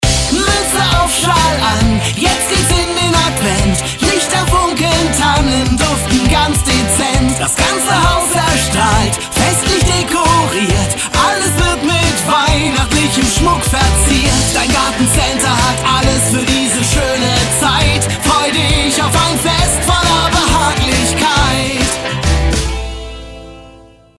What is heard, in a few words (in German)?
Funkwerbung Vocals Gartencenter; Brockmeyer